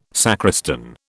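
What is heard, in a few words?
"sacristan", British English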